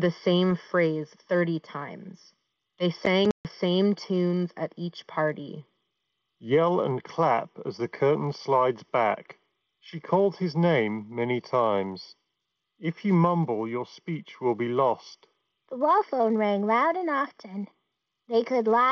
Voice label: Bose Quietcomfort Earbuds 2 Ideal microphone sample